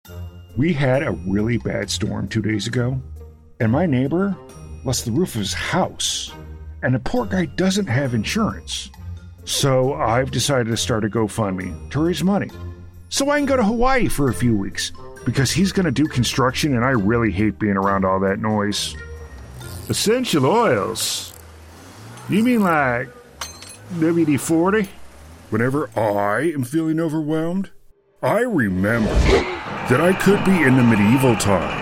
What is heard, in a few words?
Character